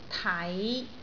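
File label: "tai5", Cantonese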